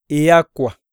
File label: crawl, iyasa